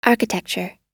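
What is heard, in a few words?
"architecture", US English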